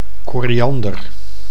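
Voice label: "koriander", Dutch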